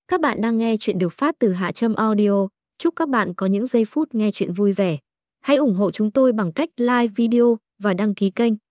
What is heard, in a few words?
intro voice; Toi Nhuong Hon Uoc Cho Thien Kim Gia; HaTramAudio